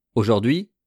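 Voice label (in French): "aujourdhui", French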